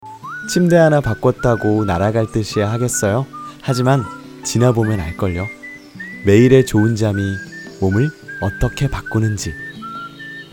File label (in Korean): 광고샘플 침대; 박보검느낌; 에이스침대